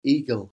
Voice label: "eagle", English spelling